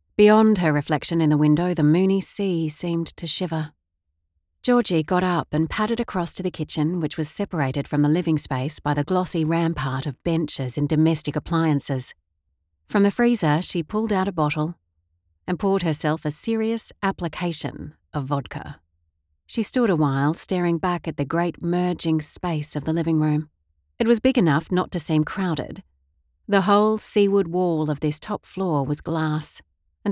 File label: Audio Book